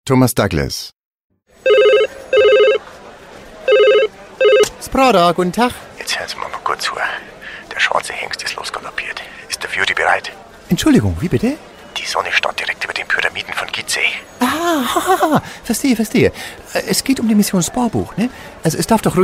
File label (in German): Werbung Dialekt bayerisch norddeutsch; Spradabank